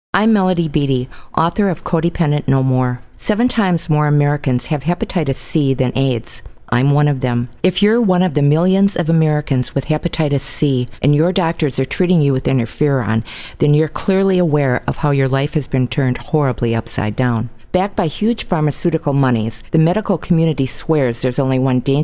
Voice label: commercial; HEP-C-FREE